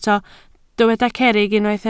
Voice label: "cerrig", Welsh

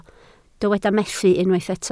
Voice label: "methu", Welsh

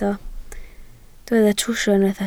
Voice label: "trwsio", Welsh

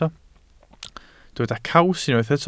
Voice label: "caws", welsh

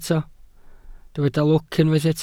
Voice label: "lwc", Welsh

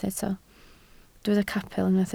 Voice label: "capel", Welsh

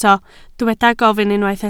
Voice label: "gofyn", Welsh

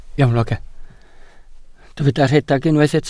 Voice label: "rhedeg", Welsh

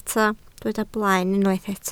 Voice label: "blaen", Welsh

